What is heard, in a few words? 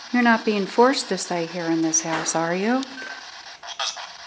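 husband, evp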